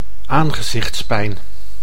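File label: "aangezichtspijn", Dutch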